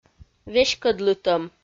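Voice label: "веськӧдлытӧм", Komi, female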